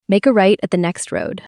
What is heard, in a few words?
Female Turn Right